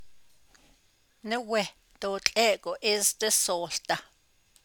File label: navajo; Stop one does not whistle at night